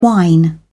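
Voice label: "whine", British English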